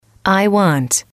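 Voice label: "I want", English, female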